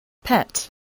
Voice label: "pet", voice, English